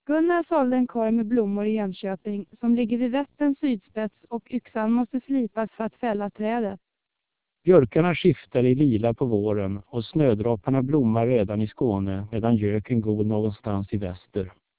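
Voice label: speech TWELP 2400 bps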